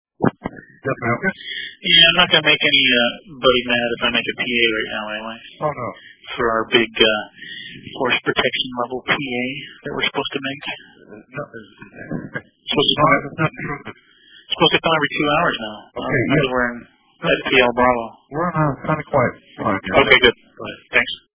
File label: Announcement; FP Bravo; Force Protection; exercise Vigilant Guardian; NEADS